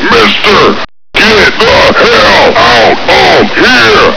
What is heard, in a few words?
hell, marine; Quark RB